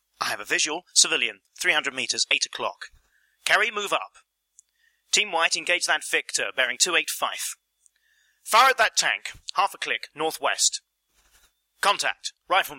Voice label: British officer